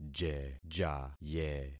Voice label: "jejaye", concat syllables; Affixing experiment

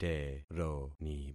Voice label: "teroni", concat syllables; Affixing experiment

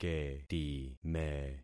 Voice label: "ketime", concat syllables; Affixing experiment

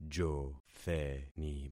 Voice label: "jotheni", concat syllables; Affixing experiment

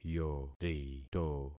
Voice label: "yorito", concat syllables; Affixing experiment

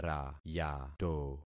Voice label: "rayato", concat syllables; Affixing experiment

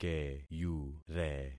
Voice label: "keyure", concat syllables; Affixing experiment

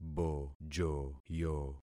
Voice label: "bojoyo", concat syllables; Affixing experiment

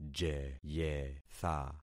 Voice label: "jeyetha", concat syllables; Affixing experiment

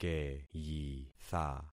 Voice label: "keyitha", concat syllables; Affixing experiment

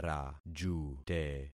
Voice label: "rajute", concat syllables; Affixing experiment

